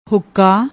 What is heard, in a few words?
"huka", Punjabi; ਹੁੱਕਾ